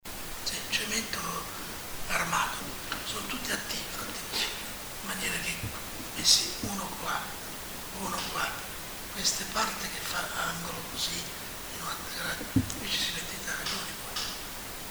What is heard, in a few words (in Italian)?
esiti di cordectomia; voce